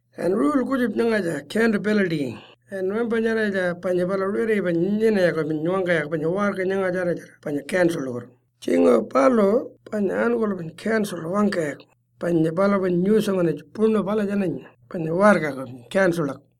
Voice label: Pitjantjatjara; Code of Conduct; ACCOUNTABILITY